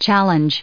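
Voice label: "challenge", US English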